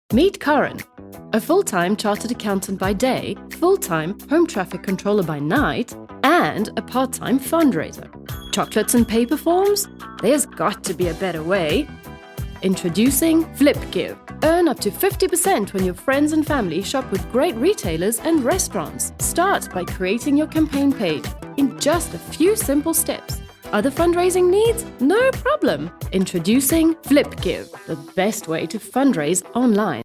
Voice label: english british tv shows

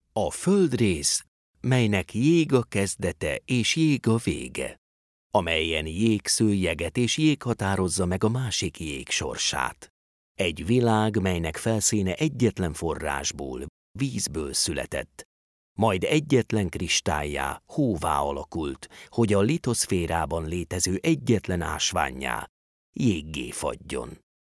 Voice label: hungarian documentaries

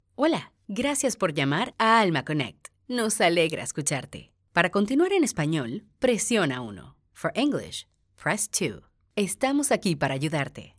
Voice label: spanish latin american ivr

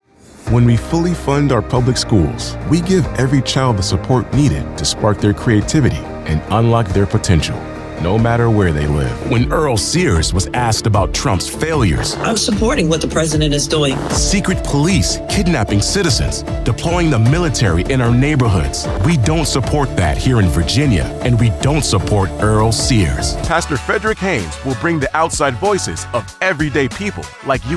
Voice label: english american political ads

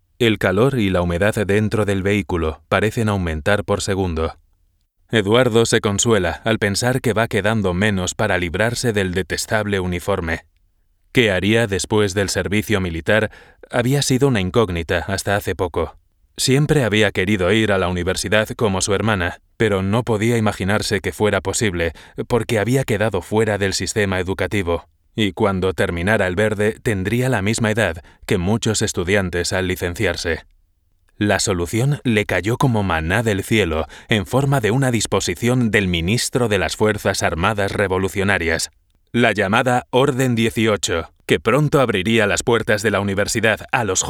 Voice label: spanish audiobooks

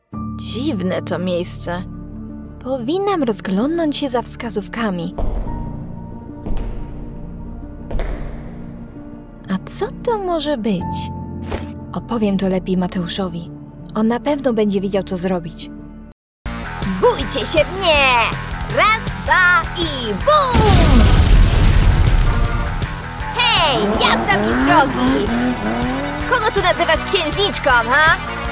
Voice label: polish video games

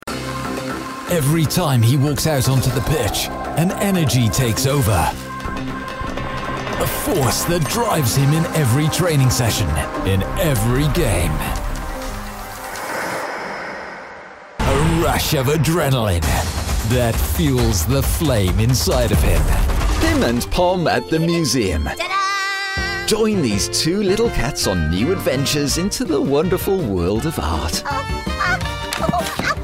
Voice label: english british promos

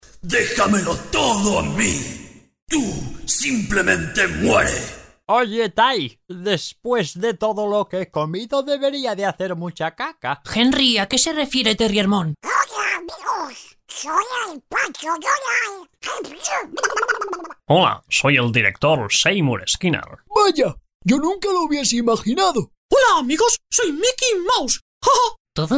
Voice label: spanish animation

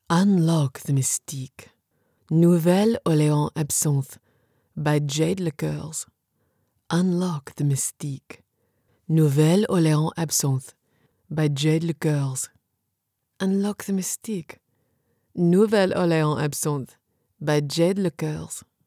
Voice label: english international promos

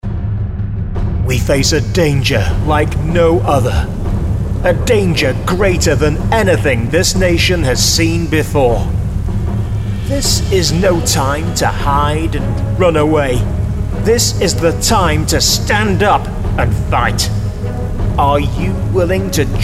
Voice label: english british video games; Reino Unido; locutor britânico nativo

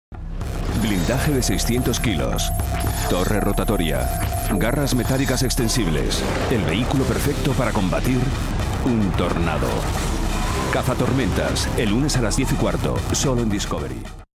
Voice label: spanish promos

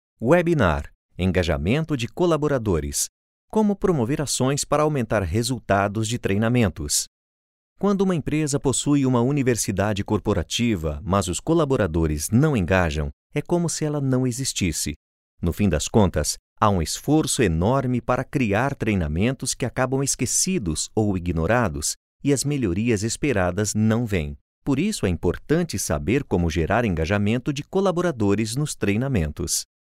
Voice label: portuguese brazilian narration

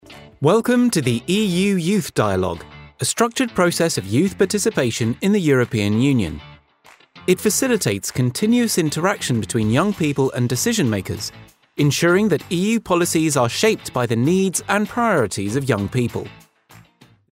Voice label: english british explainer videos

